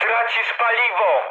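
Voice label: tank damaged mood med, speech Polish; War Thunder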